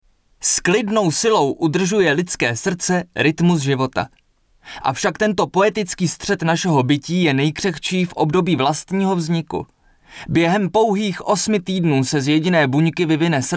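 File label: male Czech documentary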